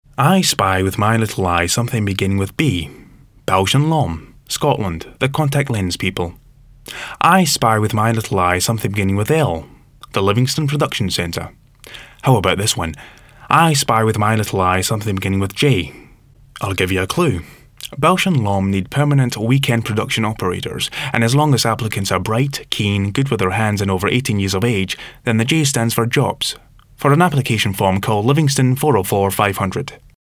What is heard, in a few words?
Commercial Scottish Accent; Bausch And Lomb